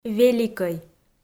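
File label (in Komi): "великӧй", Komi, female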